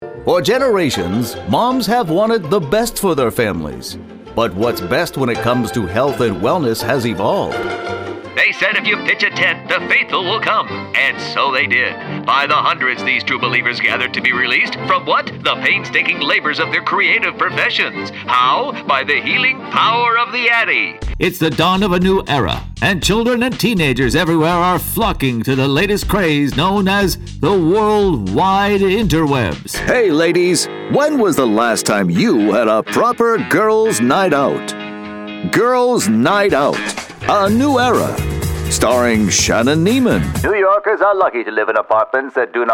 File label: retro old timey; demo